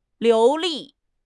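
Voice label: "liu2 li4", Mandarin Chinese; HSK4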